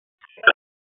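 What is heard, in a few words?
Police Southwest District Disp, radio; Raleigh; p25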